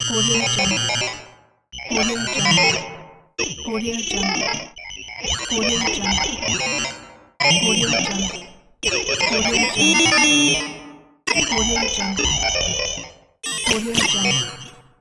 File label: aliens talk